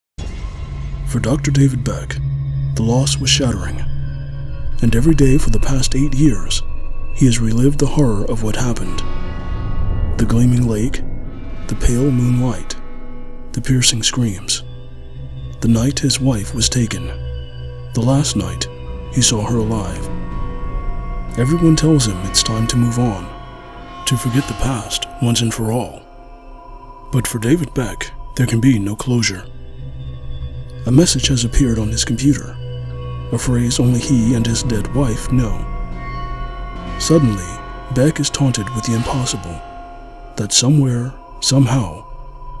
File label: Audiobook